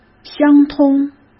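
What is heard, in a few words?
"相通", Mandarin Chinese; chinese-flashcards; hsk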